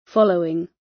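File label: "following" British English; Time, history; Thời gian, lịch sử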